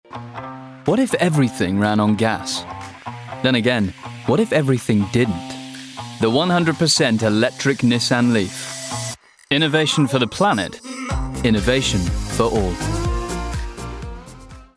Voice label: Voice Reel Commercial; Nissan